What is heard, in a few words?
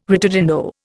"ritardando", Indian